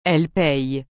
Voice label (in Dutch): "elle paye", frans; payer